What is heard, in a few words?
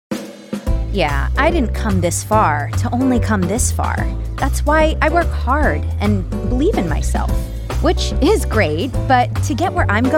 Commercial